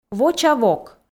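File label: "воча вок", Komi, female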